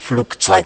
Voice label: LoFi Words, LoFi Vocals

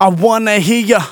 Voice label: Phrase Male Rap; Vengeance Samples

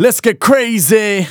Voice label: Phrase Male Rap; Vengeance Samples

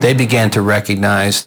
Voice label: LoFi Phrases, LoFi Vocals; Vengeance Samples

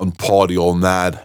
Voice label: Phrase Pitched; Vengeance Samples

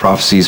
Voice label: LoFi Words, LoFi Vocals

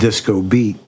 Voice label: LoFi Phrases, LoFi Vocals; Vengeance Samples

